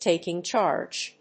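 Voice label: "taking charge", English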